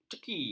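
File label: "ceki", Malay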